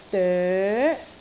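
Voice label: "doe2", Cantonese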